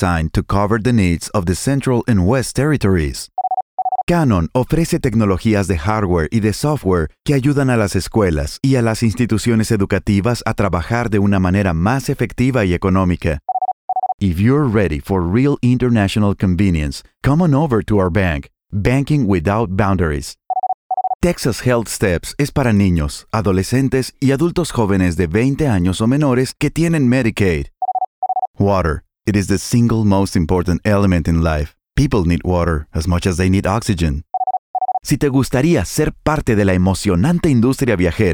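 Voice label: Bilingual English Spanish Narration and Commercials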